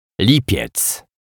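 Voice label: "Lipiec", Polish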